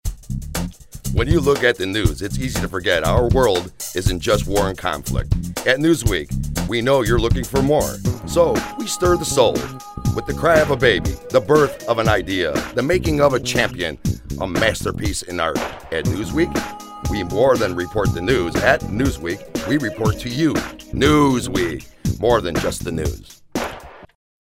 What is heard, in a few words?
Commercial, voice-overs; Newsweek